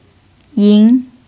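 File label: "yín", Mandarin Chinese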